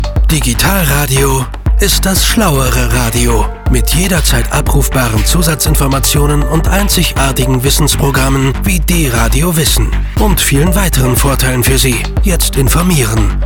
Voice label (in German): spot digitalradio